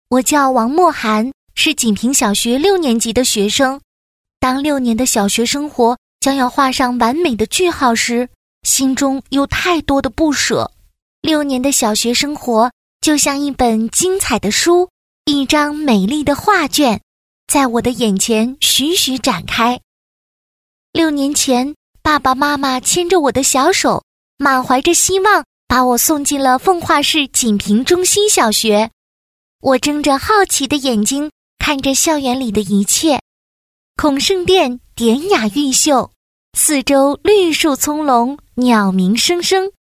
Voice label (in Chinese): 女 童声 10多岁女童声